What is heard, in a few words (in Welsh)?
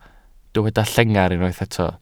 "llengar" Welsh